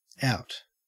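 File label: "out", Australian English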